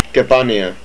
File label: "Kepania", Hawaiian